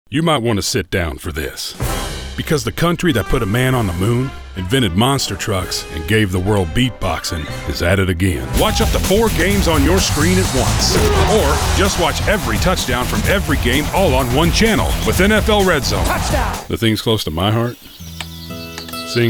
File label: Commercial